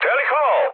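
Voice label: Serbian enemy detected mood high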